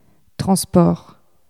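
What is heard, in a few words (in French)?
"transport", French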